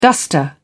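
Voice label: "duster", British English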